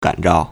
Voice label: "gan3 zhao4", Chinese

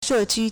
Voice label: "she4qu1", Chinese

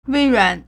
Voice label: "wei2ruan3", Chinese

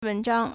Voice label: "wen2zhang1", Chinese

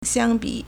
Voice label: "xiang1bu3", Chinese

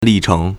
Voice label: "li4cheng2", Chinese

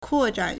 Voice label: "kuo1zhan3", Chinese

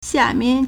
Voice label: "xia4mian4", Chinese

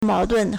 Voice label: "mao2dun4", Chinese

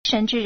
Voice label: "shen2zhi2", Chinese

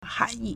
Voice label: "han2yi4", Chinese

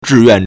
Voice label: "zhi4 yuan4", Chinese

